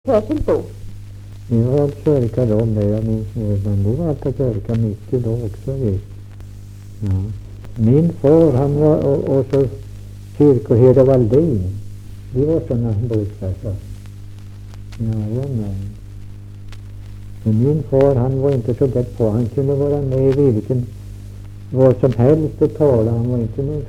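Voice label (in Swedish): Ramundeboda Närke, dialekter; Folkminnen; Svenska sagor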